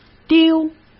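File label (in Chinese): "丢", Mandarin Chinese; hsk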